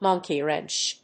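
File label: "monkey wrench", English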